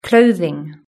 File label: "clothing", British English